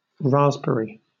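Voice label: "raspberry", British English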